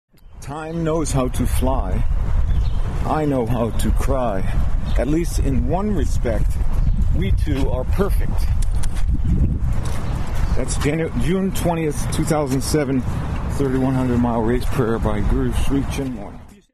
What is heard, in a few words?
poem